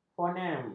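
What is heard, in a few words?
"fonem", Malay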